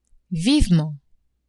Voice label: "vivement", French; adverbs